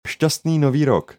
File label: New Year greeting, Czech; Šťastný nový rok; Happy New year